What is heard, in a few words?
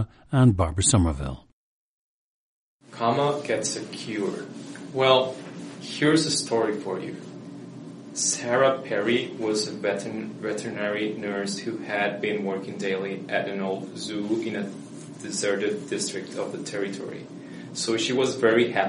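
costa rica, International Dialects of English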